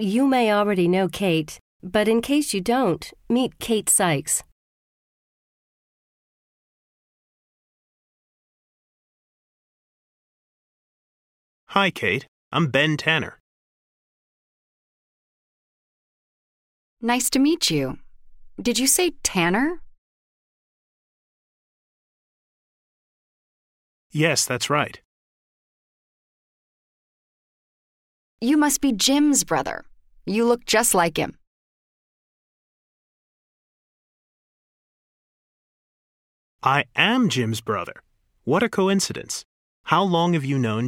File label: Pronunciation Practice; Connectivity 3